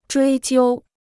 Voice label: "追究", Chinese

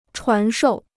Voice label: "传授", Chinese

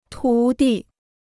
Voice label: "徒弟", Chinese

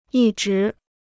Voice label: "一直", Chinese

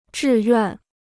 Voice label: "志愿", Chinese

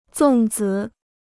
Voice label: "粽子", Chinese

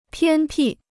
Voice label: "偏僻", Chinese

